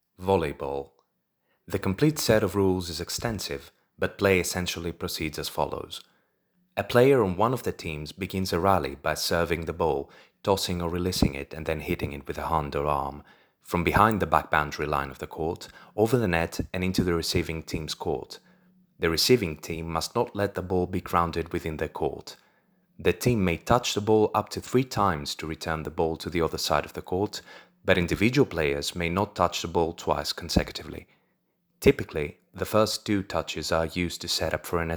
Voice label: English